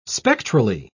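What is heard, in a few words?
"spectrally", English, British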